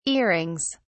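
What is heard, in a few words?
"earings", British English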